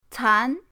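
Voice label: "can", Mandarin pinyin, second tone; pinyindct-open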